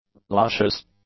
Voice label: "luscious", English; adjectives